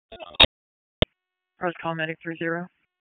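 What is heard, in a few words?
EMS Dispatch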